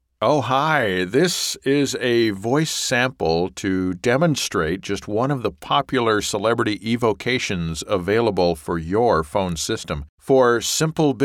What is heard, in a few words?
Phone System Sample; Goldblum